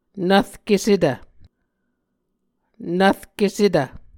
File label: "nvrkesetv", Muscogee